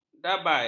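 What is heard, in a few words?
"dabal", Malay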